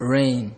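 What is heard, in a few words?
"rain", Nigerian Pidgin, male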